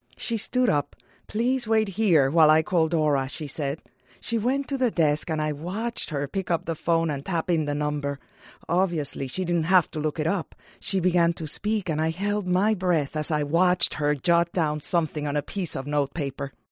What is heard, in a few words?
english audio